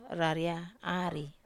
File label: "raria ari", Wamesa